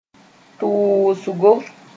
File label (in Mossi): "tʋʋsgo", moore; a head-pad